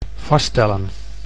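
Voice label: "vaststellen", Dutch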